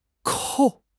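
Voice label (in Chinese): "扣", Chinese; HSK; HSK6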